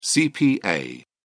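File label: "cpa", British English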